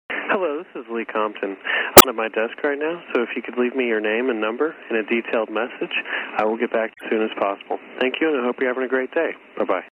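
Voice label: voicemail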